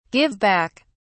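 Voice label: "give back", English, British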